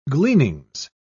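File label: "gleanings", British English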